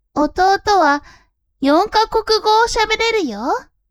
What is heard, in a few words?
woman